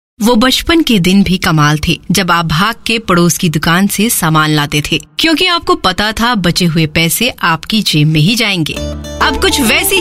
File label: female voice Vo; PayTM